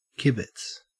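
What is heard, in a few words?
"kibitz", Australian English; pronunciations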